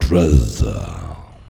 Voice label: "CRASY", SHOUTS; Best Service; Hyper Dance

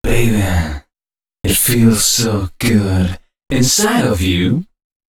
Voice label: male 130, Dance Ultra Voice, short hooks; Mutekki Media; Dance Ultra Voice VOL-1

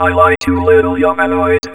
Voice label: HUMANOID, Funky Vocals; USB Soundscan vol.18; AKAI; COMPUTER

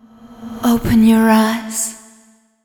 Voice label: fem spo, Vocals; Mutekki Media; Diamond Vocals 2

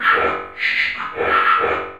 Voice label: ODD VOX, ROBOT VOX; Zero-G Ambient 1

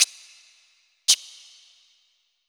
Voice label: VX, FUNK, Hip-Hop & R&B; USB Soundscan vol.47; AKAI

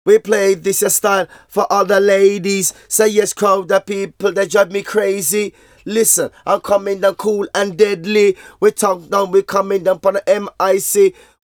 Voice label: Vocals; Jungle Frenzy 1

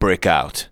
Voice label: "BREAKOUT", SPOKEN; Techno Trance Essentials

